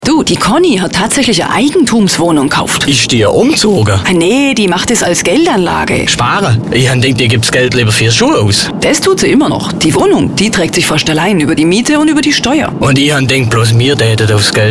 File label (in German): Immobilien Radiowerbung